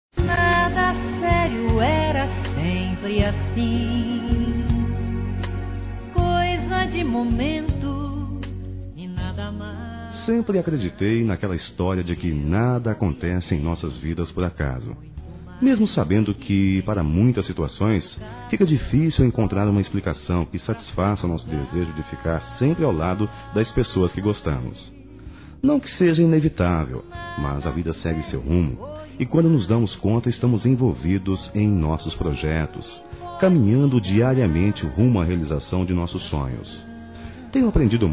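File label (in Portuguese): Masculino, Telemensagens Aniversários; PAI DISTANTE; Telemensagens 2017; Aniv Familiar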